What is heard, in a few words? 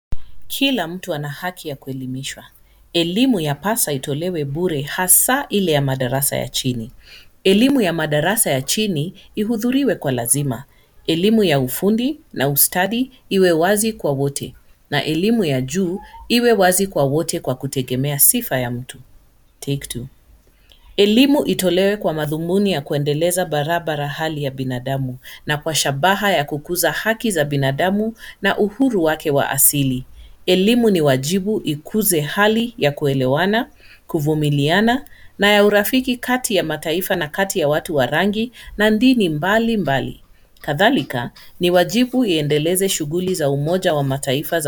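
Swahili, General; GoLocalise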